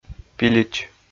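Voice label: "пилич", Komi, male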